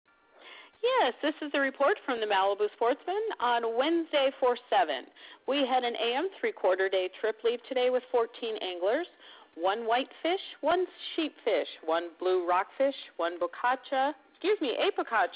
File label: voice message